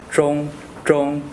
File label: zhong male